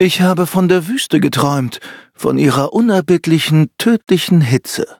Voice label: level up, de; cookie run